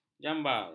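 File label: "jambar", Malay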